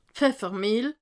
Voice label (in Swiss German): "pfeffermiehl", alsace